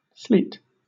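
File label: "sleet", British English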